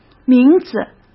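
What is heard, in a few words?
"名字", Mandarin Chinese; chinese-flashcards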